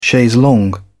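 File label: "chaise longue", British English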